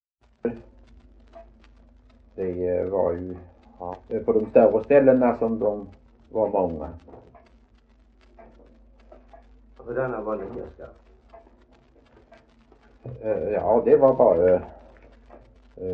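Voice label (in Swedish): Veddige Halland, Svenska sagor dialekter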